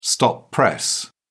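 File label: "stop press", English, British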